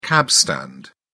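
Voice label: "cabstand", British English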